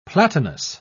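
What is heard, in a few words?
"platinous", British English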